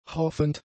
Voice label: "hoffend", German; adjectives

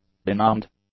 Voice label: "benumbed", English; adjectives

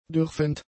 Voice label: "dürfend", German; adjectives

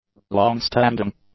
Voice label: "longstanding", English; adjectives

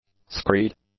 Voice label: "screed", English; nouns

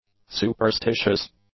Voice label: "superstitious", English; adjectives

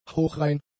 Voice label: "hochrein", German; adjectives

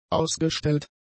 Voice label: "ausgestellt", German; adjectives

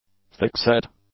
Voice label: "thickset", English; adjectives

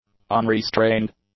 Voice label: "unrestrained", english; adjectives

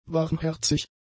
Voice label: "warmherzig", German; adjectives

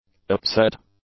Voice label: "upset", english; adjectives

